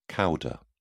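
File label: "cauda", British English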